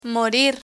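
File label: "morir" Spanish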